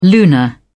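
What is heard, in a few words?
"lunar", British English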